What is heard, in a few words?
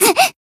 damaged, jp